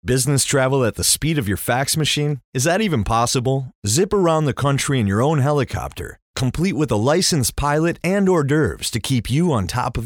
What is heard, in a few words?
english american commercial